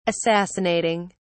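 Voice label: "assassinating", British English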